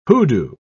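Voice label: "hoodoo", British English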